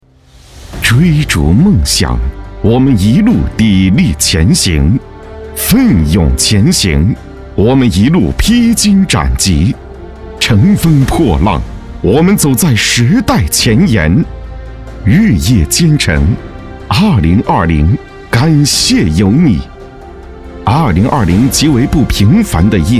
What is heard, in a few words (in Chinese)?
大气磅礴; 开发公司